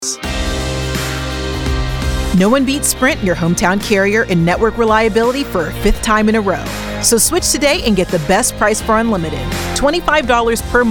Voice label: commercial; sprint